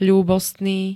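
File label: "ľúbostný", Slovak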